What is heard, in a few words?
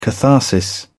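"catharsis", British English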